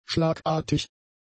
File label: "schlagartig", German; adjectives